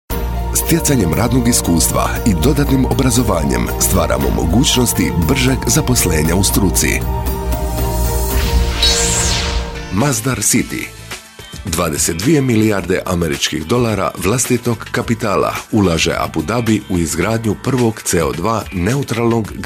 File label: Croatian Male